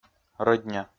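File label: "рӧдня", Komi, male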